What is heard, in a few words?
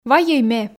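"вайӧй ме", Komi, female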